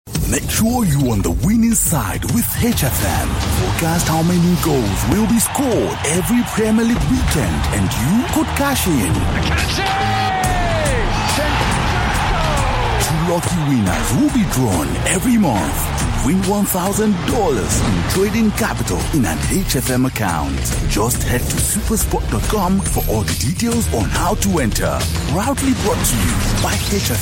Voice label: african accent promo